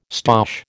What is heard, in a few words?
"stoush", American